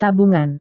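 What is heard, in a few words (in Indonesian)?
"tabungan", Indonesian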